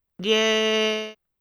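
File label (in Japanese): "りぇ", Japanese syllable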